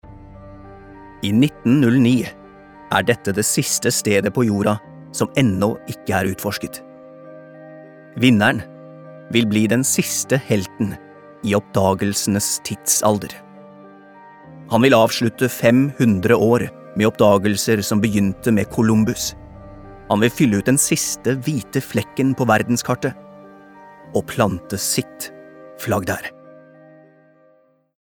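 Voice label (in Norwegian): dokumentar, Norwegian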